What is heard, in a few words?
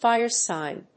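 "fire sign", English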